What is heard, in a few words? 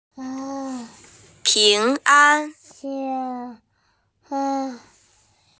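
"ping2an1", Chinese, F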